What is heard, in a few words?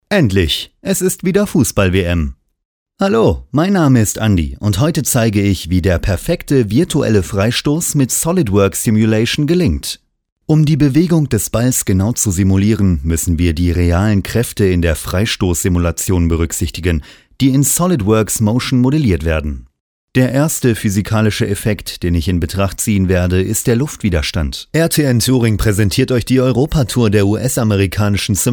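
German, male; lektoring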